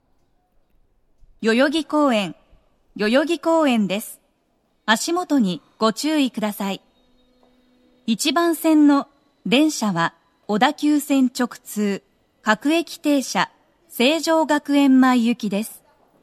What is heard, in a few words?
local seijogakuen mae, station, tokyo metro